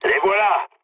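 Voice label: French enemy detected mood low; War Thunder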